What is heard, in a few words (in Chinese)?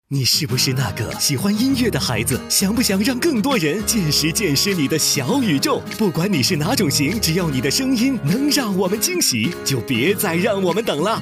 男 轻松类 宣传片, 国语男声配音 卫视; 北京卫视《音乐大师课》宣传片